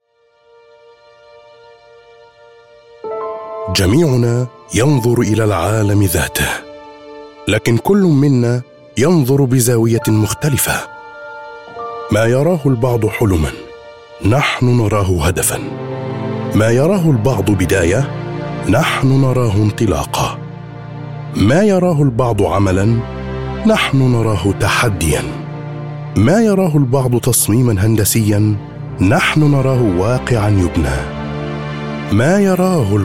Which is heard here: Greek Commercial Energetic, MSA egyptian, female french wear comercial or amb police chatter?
MSA egyptian